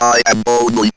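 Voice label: ROBVOX, robot voice; E-Lab; X Static Goldmine 1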